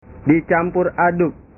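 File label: "dicampur aduk", Indonesian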